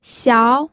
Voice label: "xiao2", pinyin